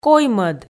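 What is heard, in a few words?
"коймӧд", Komi, female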